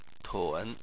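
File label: "tun", Chinese, third tone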